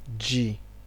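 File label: "G", Nigerian Pidgin, male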